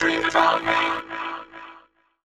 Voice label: ELECTRO MALE 140 A, Vocals

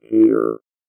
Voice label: VOICEFX ELCTR 140; Techno2

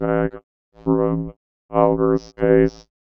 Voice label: VOICEFX ELCTR 140; Techno2

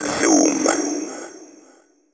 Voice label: techno male 140; Vocals

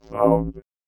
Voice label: VOICEFX ELCTR 140; Techno2

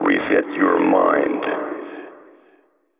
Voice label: techno male 140; Vocals

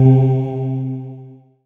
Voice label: VOX, VOCODER; VOCODER 1

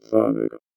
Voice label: VOICEFX ELCTR 140; Techno2

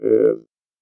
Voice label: VOICEFX ELCTR 140; Techno2

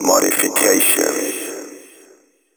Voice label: techno male 140; Vocals

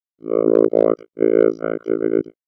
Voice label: VOICEFX ELCTR 140; Techno2

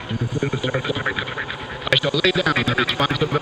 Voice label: TECHNO VOCALS 140; Techno2

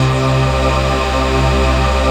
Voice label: VOX, VOCODER

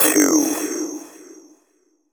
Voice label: techno male 140; Vocals

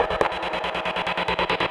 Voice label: TECHNO VOCALS 140; Techno2

